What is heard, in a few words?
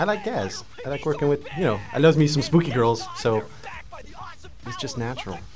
commentary